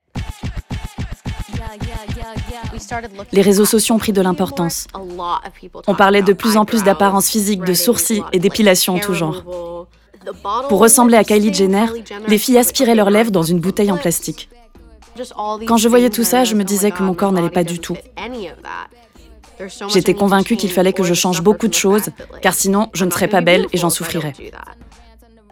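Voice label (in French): VOICE OVER - Série Documentaire, comédienne; NETFLIX « F1 : The Academy » / Rôle Lola Lovinfosse (2025)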